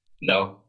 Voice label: Voice Acting, es